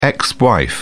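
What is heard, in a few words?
"ex-wife", British English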